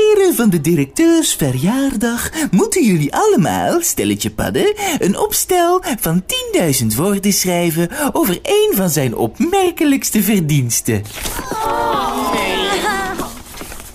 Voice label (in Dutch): Stemdemo Stemmetjes Imitaties